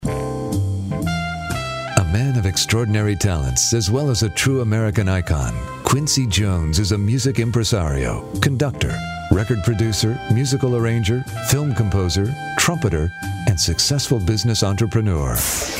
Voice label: narration